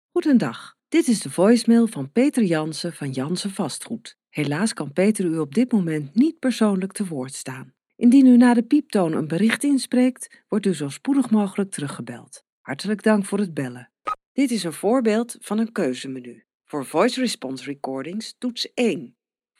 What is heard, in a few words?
DUT F